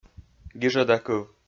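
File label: "гижӧда кыв", Komi-Zyrian, male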